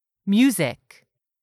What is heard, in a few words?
"music", English; 3000 words